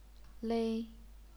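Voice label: "lei", Chinese, first tone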